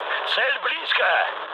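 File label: Russian near waypoint mood high, speech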